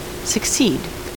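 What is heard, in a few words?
"succeed", US English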